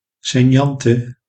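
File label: "saignante", Dutch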